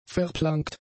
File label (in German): "verplankt", german; adjectives